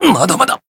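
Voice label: damaged, Japanese; claude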